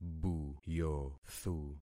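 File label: "buyothu", concatenated syllables; Affixing experiment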